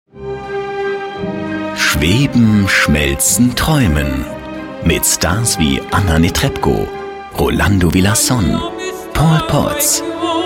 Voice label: Classic Emotions